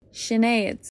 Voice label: "Sinead", irish names